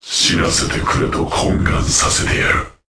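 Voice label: Vox Skill, voices, Japanese; Lusikiel; kings raid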